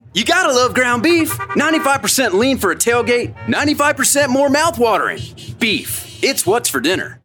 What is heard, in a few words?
Commercial Food Beef Agriculture